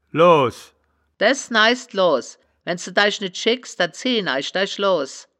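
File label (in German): "loahs", Wittlicher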